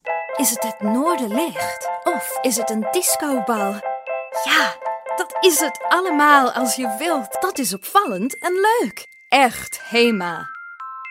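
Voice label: Dutch commercial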